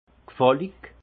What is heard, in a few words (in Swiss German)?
"gfallig", alsace; vin; plaisant